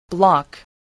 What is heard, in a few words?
"block", spelling